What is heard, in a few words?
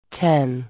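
"Ten", English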